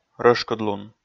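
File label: "рӧшкыдлун", Komi, male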